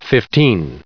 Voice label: "fifteen", en-us